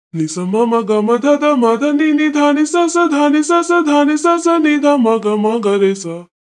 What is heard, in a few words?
voice, pitchshift